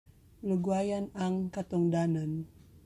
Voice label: Cebuano